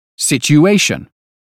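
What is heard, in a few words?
"situation", British phonetics; 英语音标发音（剑桥版）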